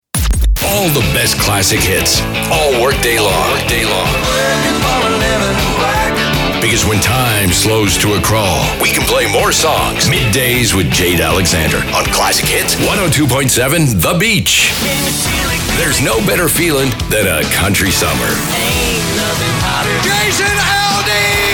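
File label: voice over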